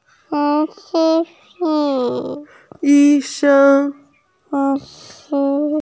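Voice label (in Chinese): "yi1 sheng1" Mandarin Chinese, female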